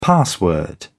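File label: "password", British English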